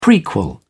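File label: "prequel", British English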